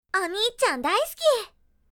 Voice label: I love you big brother anime girl character